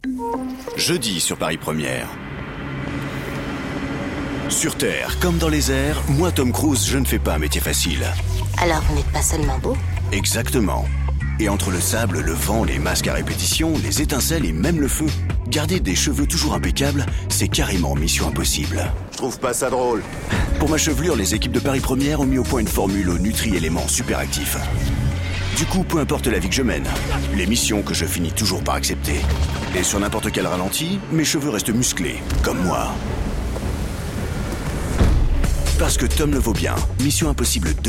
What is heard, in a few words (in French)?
Voix jouée Héro de film Parodie pub champoing; Mission Impossible 2